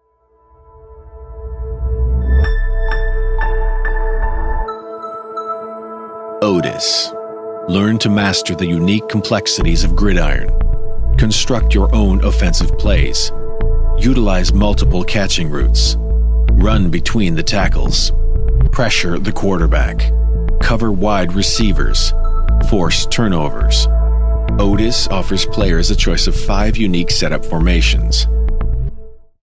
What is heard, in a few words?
Game Explainer